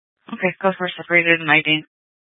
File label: Phx PD Dispatch, scanner; C- Chandler